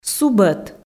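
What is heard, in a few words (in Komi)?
"субӧт", Komi, female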